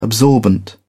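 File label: "absorbent", British English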